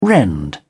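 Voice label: "rend", English, British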